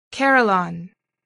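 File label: "carillon", English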